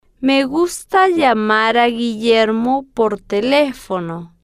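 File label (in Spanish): "me gusta llamar a guillermo por telefono", spanish, female, slow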